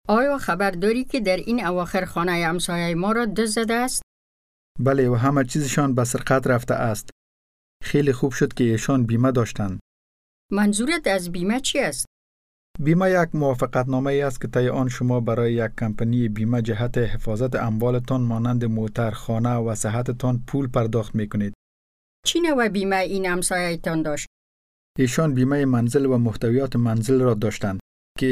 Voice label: dari; insurance